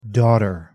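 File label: "daughter", American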